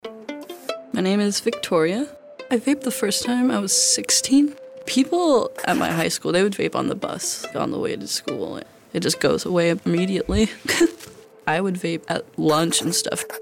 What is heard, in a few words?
Teen Testimonial; E-cigarettes